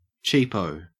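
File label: "cheapo", Australian English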